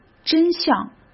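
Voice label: "真相", Mandarin Chinese; chinese-flashcards; hsk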